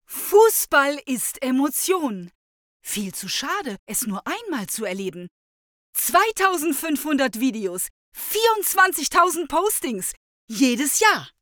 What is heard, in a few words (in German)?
Werbung